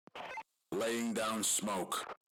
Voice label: smoke, radio